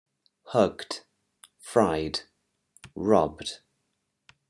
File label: english pronunciation rules